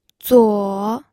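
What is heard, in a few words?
"zuo3", Mandarin Chinese pinyin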